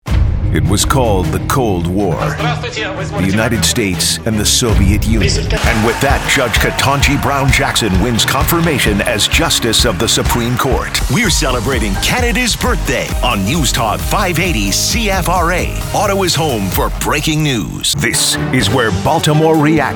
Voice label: newstalk